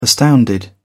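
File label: "astounded", British English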